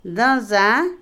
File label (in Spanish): plátano, banano, Otomí, mujer; INALI